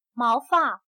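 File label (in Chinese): "毛发", Chinese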